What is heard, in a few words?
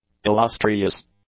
"illustrious", English; adjectives